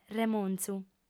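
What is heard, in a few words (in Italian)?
"remonzu", lingua sarda, fem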